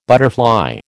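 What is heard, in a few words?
"butterfly", American English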